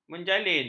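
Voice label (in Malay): "menjalin", Malay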